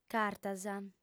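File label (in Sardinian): "cartas", female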